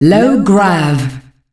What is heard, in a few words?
Low Grav, Announcer Voice, female; Unreal Tournament; ut2004